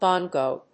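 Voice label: "bongo", English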